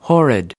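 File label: "horrid", American English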